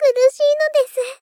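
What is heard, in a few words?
Moe, Dying, "Kurushi nodesu", Japanese female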